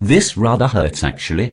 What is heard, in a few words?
posh headcrab ouch; garrysmod